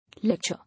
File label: "Lecture", English